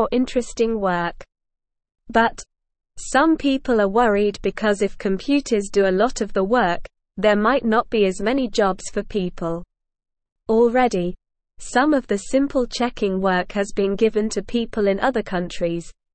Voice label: English Beginner SLOW Reading; English Newsroom; Smart Computers Changing How People Work with Numbers